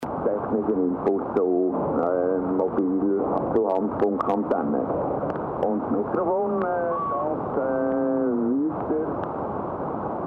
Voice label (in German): Amateurfunk 80m LSB; Sony-Eton